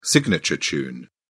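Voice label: "signature tune", English, British English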